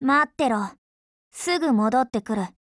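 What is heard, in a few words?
OPERATION BEGIN Japanese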